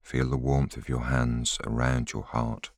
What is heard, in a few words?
voiceover